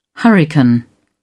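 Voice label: "hurricane", English, British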